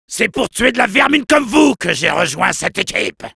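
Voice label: Soldier taunts, French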